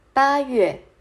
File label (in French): Aout Mandarin